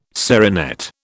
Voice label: "serinette", British